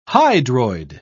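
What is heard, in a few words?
"hydroid", British English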